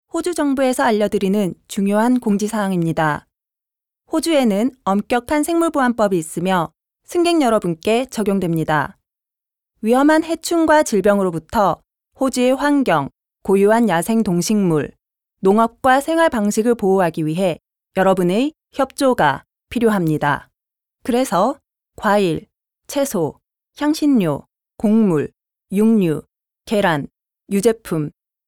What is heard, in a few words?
incoming passenger announcement korean; Passenger announcement - Korean 2021; The Department of Agriculture, Fisheries and Forestry